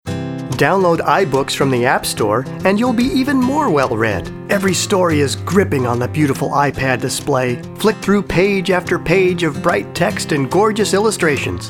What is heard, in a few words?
Narration Demo